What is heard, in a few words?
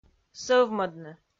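"сӧвмӧдны", Komi, female; audio dict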